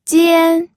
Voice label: "jian1", pinyin audio

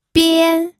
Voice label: "bian1", pinyin

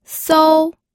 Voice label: "sou1", pinyin

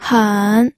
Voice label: "hen3", pinyin audio

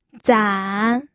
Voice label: "zan3", pinyin

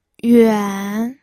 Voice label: "yuan3", pinyin

